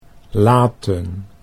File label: "laten", Dutch